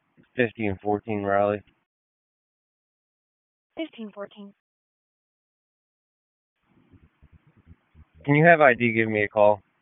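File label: Police Southwest District Dispatch; Raleigh; p25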